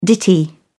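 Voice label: "ditty", British English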